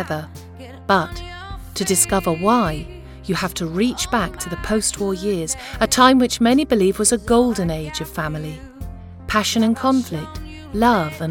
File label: Documentary British Families